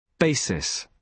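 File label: "basis", British English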